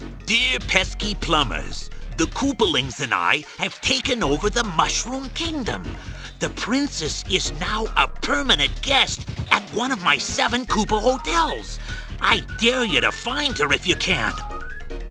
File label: Intro Narration; Hotel Mario